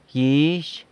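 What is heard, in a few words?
"gyizh", city person, San Lucas Quiaviní Zapotec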